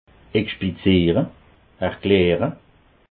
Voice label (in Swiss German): "expliziere", alsace